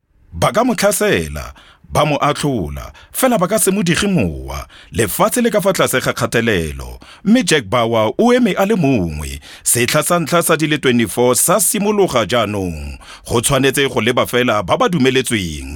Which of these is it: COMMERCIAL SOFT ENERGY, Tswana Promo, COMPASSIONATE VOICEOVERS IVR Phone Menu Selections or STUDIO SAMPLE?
Tswana Promo